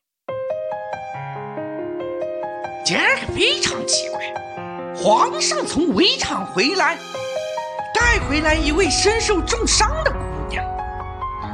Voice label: 容嬷嬷音

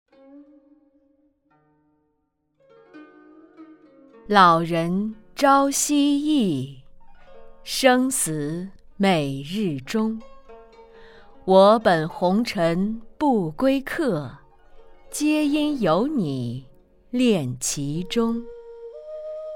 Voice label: 品质广告 中医

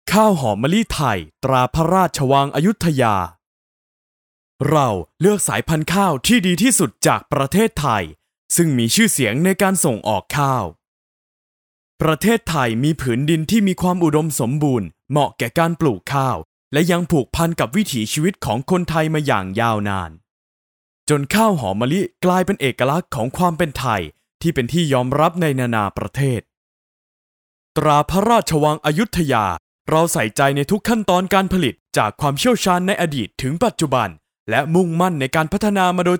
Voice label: 泰语 泰国大米宣传

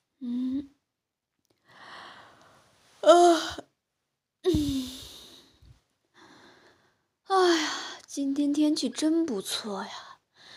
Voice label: 广播剧

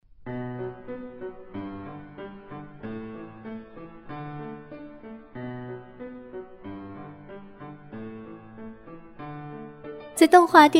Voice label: 甜美女声动物萌宠画外音

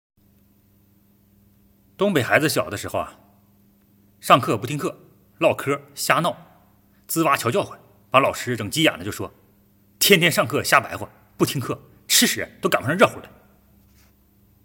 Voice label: 东北话上课不听课

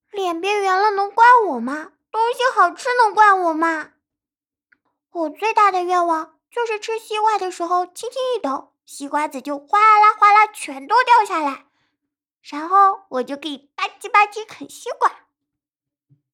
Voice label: 女童 狐狸

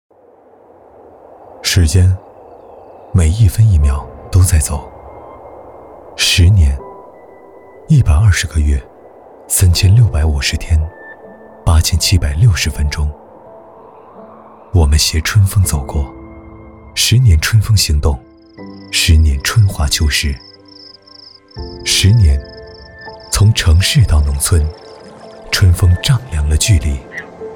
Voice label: 旁白年轻

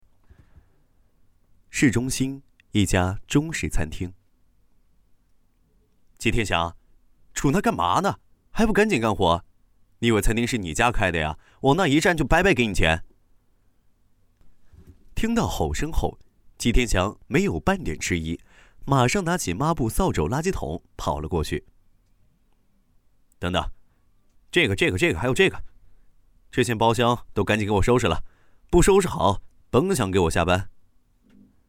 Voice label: 角色音男

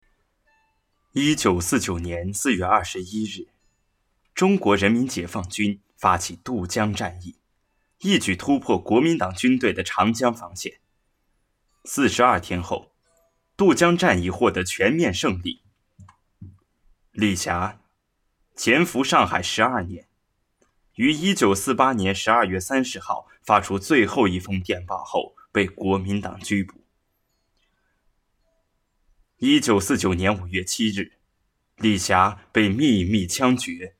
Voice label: 旁白; 永不消逝的电波

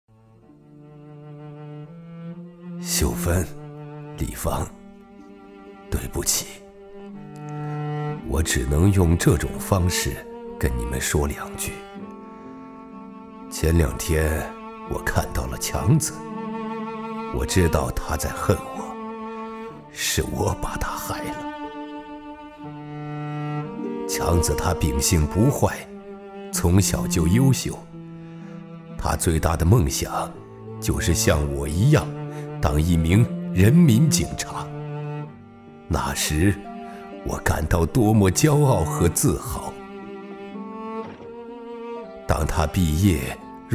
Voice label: 演绎 中年 悲伤; 忏悔录

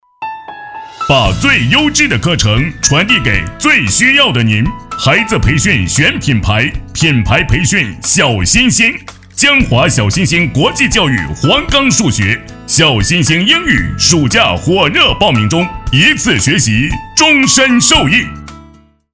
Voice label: 学校教育广告配音

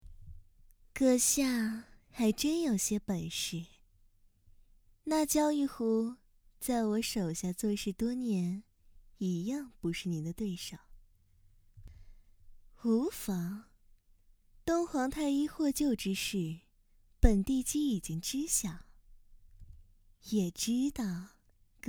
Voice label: 女少御; 妖姬

